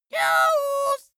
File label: dwarf scream news, voices